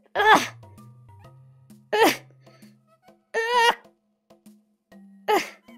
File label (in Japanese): ダメージボイス; ドンキーコング６４